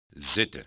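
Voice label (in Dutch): "zitten", Dutch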